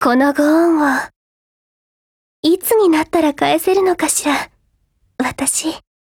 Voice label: COMBINE, JP; G36C